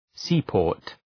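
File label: "seaport" English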